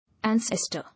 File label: "Ancestor", English